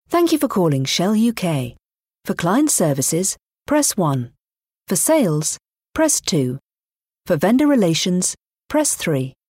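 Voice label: British English, IVR; GoLocalise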